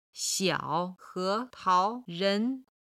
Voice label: "xiao3he2tao2ren2", chinese

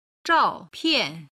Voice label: "zhao4pian4", chinese

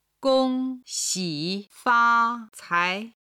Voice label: "gongxifacai", Chinese

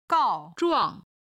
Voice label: "gao4 zhuang4", chinese

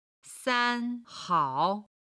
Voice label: "san hao", chinese

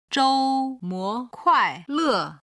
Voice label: "zhoumo kuaile", chinese

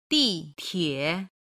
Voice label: "di4tie3", Chinese

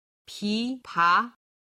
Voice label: "pipa", Chinese

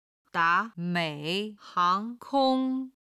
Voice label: "da2 mei3 hang2 kong1", Chinese

